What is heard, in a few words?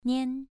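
"nian", tone 2, pronunciation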